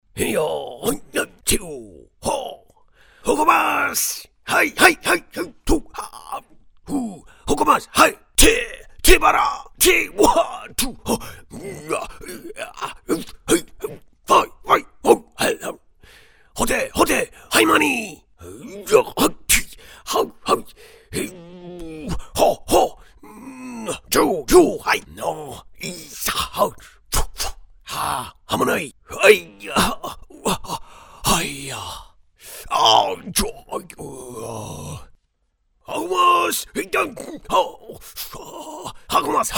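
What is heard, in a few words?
Parody; Samyrai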